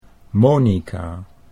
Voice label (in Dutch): "Monika", Dutch